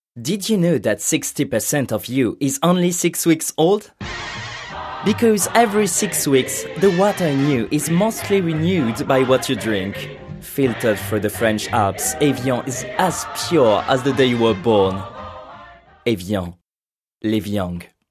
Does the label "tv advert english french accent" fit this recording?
Yes